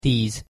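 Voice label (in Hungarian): "tíz", Hungarian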